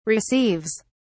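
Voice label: "receives", British English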